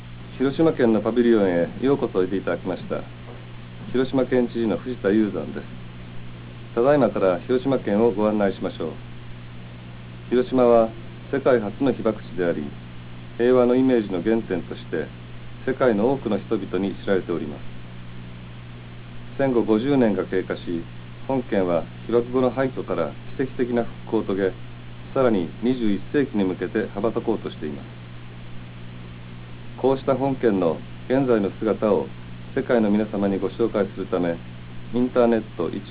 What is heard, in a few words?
japanese; Hiroshima